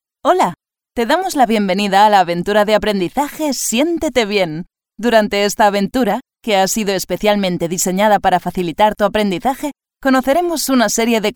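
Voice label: Spanish, female, e-learning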